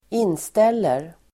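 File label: "inställer", Swedish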